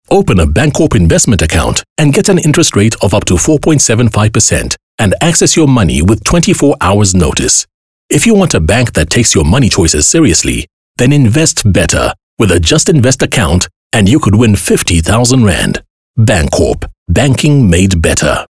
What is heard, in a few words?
English Corporate; OSM Talent